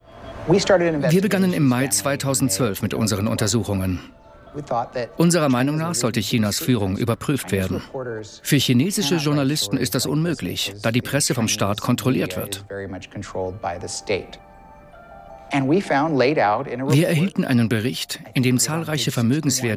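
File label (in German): VoiceOver, Sprecher, Männer; China der entfesselte Riese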